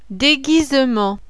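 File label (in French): "DEGUISEMENT", French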